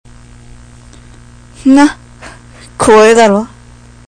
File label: terrible m